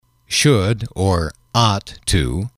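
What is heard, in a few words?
"should ought to", English, male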